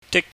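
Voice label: "dik", Cantonese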